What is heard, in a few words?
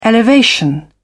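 "elevation", British English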